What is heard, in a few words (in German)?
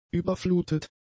"überflutet", German; adjectives